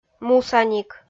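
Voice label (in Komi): "мусаник", Komi, female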